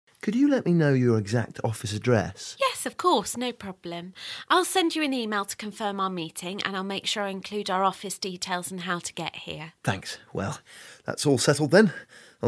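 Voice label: business arrangements, British English; upper intermediate level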